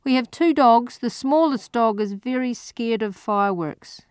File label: New Zealand sentence